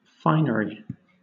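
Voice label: "finery", British English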